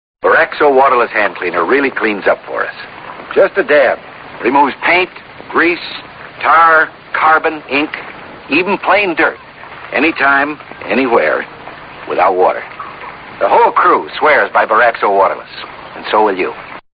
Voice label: Commercial Boraxo